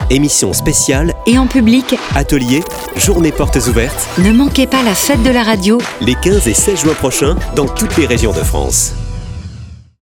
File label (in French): spot Medley dans toutes les régions de France; Fete de la radio 2023 10sec